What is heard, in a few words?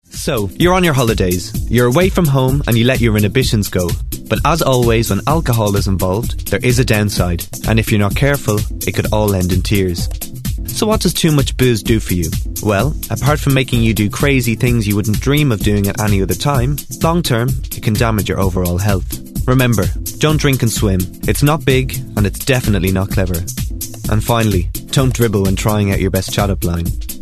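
English, Republic of Ireland, male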